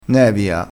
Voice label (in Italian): "nevia", crespinese